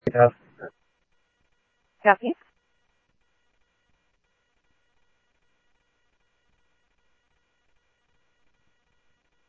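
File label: Mesa Police Department, scanner audio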